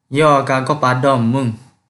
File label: Kelantan-Pattani Malay